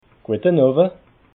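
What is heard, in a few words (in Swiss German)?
"gueta nowa", Alsatian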